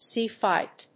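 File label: "sea fight", English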